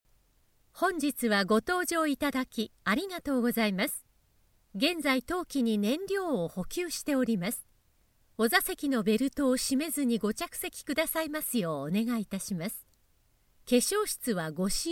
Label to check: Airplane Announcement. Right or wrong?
Right